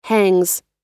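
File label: "hangs", American English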